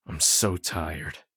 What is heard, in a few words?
tired; Escape from Tarkov